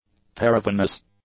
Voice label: "paravenous", English; adjectives

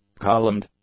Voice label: "columned", English; adjectives

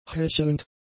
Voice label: "hechelnd", German; adjectives

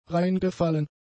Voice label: "reingefallen", German; adjectives

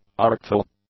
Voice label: "artful", English; adjectives

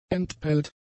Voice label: "entpellt", German; adjectives

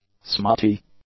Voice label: "smutty", english; adjectives

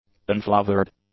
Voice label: "unflavoured", english; adjectives

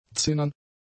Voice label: "zinnern", German; adjectives

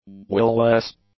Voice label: "will-less", english; adjectives

